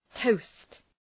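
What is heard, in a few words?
"toast", English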